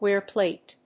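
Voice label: "WEAR PLATE", English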